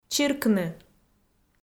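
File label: "чиркны", Komi, female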